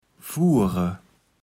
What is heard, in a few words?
"fuure", Kaevels Platt